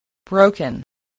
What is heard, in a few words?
"broken", spelling